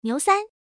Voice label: female